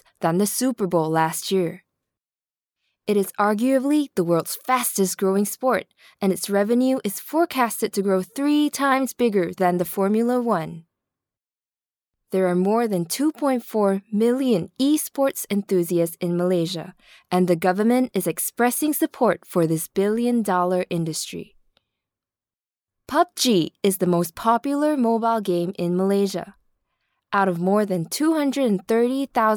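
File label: ENG Guide voice over; Maxis Esport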